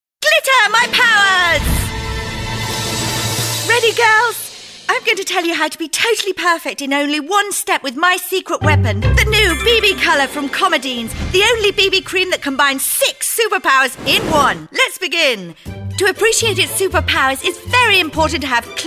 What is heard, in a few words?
female british english cream comercial; ideasonora